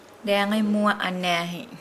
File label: Nukuoro; day before yesterday